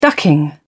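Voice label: "ducking", British English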